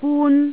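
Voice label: "gun", Cantonese, tone 1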